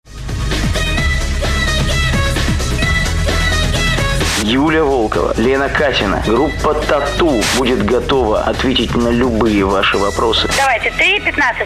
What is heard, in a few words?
Radio reklama; Radio Silver